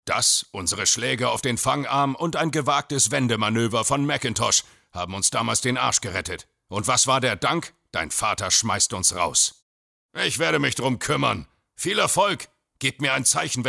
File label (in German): Hörspiel Rolle Kapitän